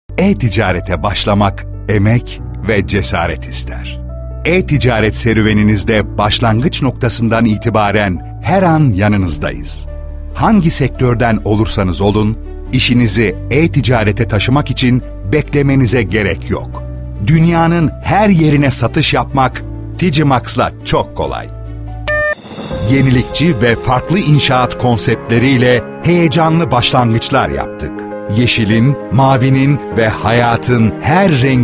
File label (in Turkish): reklam